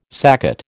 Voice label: "saccate", American

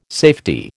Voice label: "safety", American

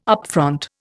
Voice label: "upfront", Indian

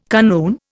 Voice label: "kanoon", Indian

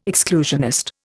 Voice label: "exclusionist", Indian

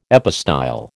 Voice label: "epistyle", American

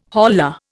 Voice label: "holla", Indian

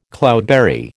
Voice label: "cloudberry", American

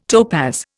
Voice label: "topaz", Indian

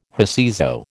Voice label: "whoseso", American

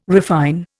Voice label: "refine", Indian

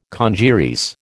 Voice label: "congeries", American

